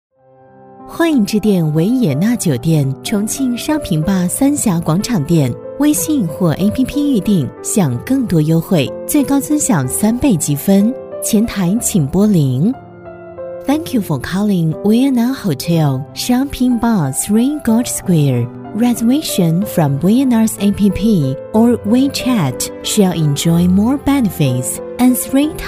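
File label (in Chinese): 女-中英文彩铃【电话彩铃】; 甜美亲切; 维也纳酒店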